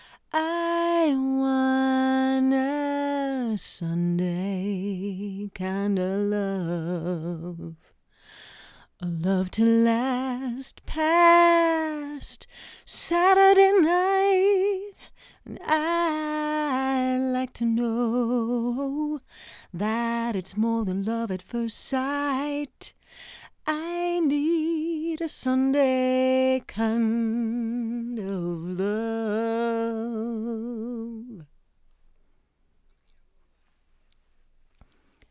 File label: Voc No Comp Soft